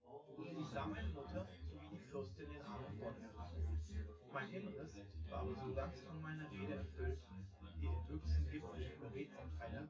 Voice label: male synth conv

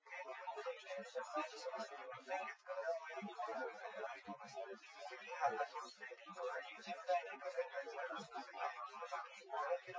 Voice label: male; SiSEC08; SiSEC underdetermined

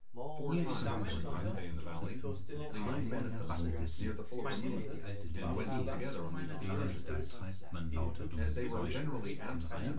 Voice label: male synthconv 1m mix; SASSEC07

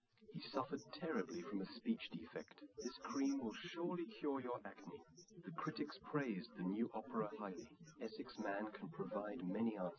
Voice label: male liverec 130ms 5cm; SiSEC08; SiSEC underdetermined

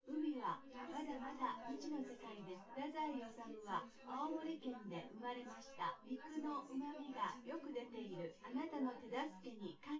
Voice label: female synthconv

